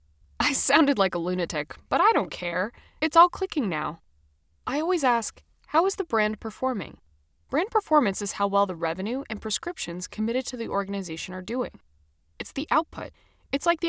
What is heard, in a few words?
eLearning Character